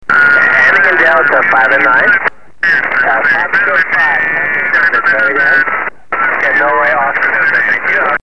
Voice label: 20 ssb